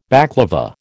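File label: "baklava", American English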